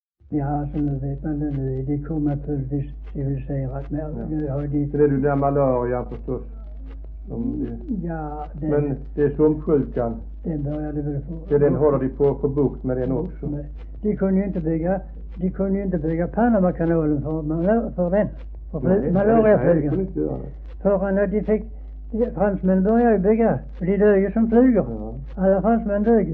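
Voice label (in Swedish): Höör Skåne, dialekter svenska sagor; Folkminnen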